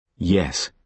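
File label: "yes", British English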